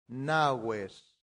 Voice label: "naves", latin; santillana

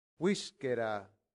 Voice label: "viscera", latin; santillana

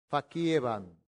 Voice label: "faciebant", Latin; santillana

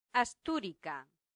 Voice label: "asturica", latin; santillana

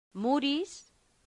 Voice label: "muris", latin; santillana

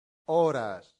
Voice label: "horas", Latin; santillana

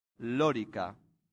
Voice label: "loricae", latin; santillana

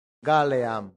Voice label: "galeam", Latin; santillana

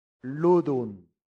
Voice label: "ludunt", Latin; santillana

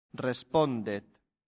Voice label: "respondet", Latin; santillana

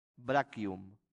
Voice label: "brachium", latin; santillana

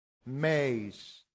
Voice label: "meis", Latin; santillana

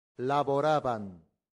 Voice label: "laborabant", latin; santillana

